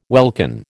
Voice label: "welkin", American